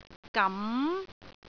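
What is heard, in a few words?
"gam2", Cantonese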